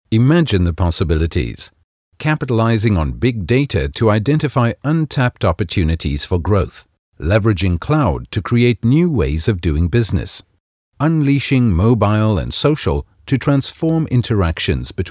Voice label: Corporate